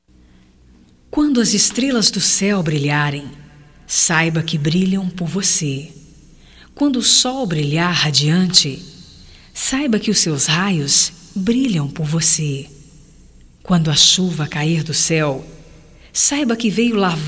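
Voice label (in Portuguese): EVANGELICA, female, Telemensagens, Só Voz; Telemensagens 2017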